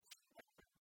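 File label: "чинтӧмӧн", Komi, female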